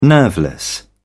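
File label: "nerveless", British English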